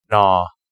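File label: "gnaw", English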